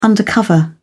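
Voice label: "undercover", British English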